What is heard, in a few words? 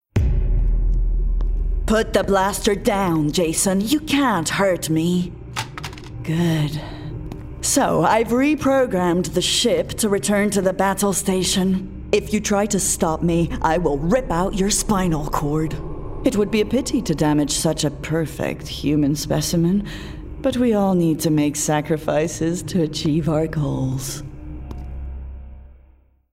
Gaming Evil Bot